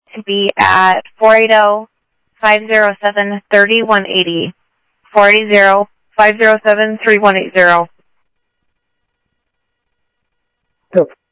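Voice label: Sheriff East County, scanner audio; MCSO - EAST; ThompsonPeakScot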